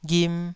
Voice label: "ghim2", Taiwanese syllable

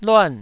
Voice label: "luan4", Taiwanese syllable

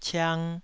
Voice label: "ciang2", taiwanese

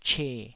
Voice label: "che3", Taiwanese

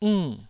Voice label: "inn4", taiwanese syllable

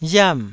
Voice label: "riam4", taiwanese

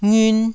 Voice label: "ngiun1", Taiwanese syllable

